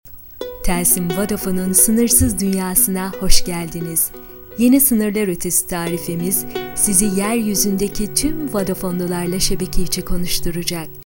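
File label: turkish female voice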